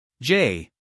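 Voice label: "j", US English, male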